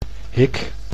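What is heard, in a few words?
"hik", Dutch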